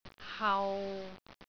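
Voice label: "hau6", Cantonese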